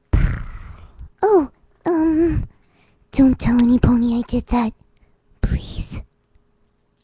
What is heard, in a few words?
purring sound, whispers; Oh-umm... Don't tell anypony I did that... *whispers* please.; Fluttershy